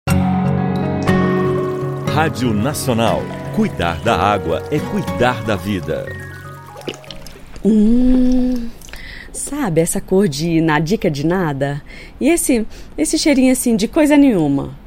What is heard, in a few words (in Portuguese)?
spot mulher bebendo agua